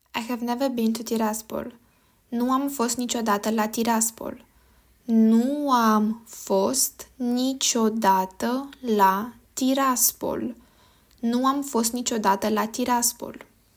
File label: I have never been to, romanian; I have never been to Tiraspol